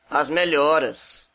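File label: get well soon, Brazilian Portuguese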